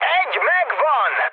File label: player killed enemy, mood high, speech hun